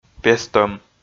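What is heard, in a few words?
"пестӧм", Komi, male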